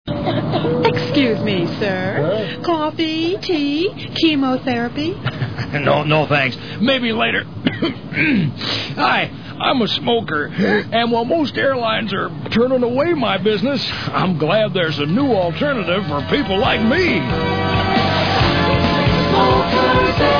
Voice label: Commercials smokeair; Smokers Airlines; Freekin Weird Audio